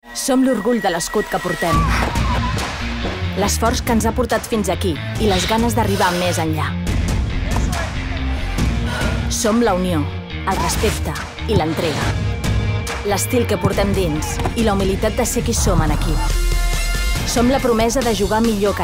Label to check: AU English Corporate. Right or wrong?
Wrong